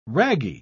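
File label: "ragee", British English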